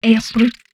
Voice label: humanoid female; Stellaris; humanoids